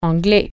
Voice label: english, french